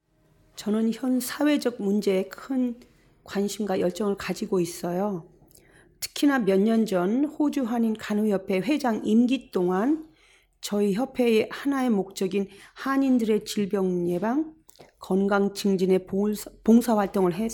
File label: Korean; hepatitis; AskHepatitisCampaign